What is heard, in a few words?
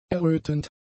"errötend", German; adjectives